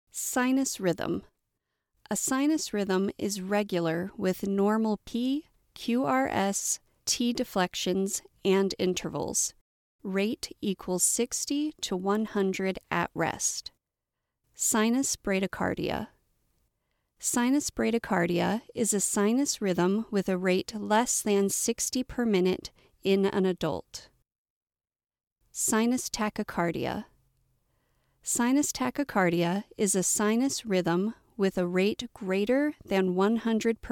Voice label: Medical Explainer ECGs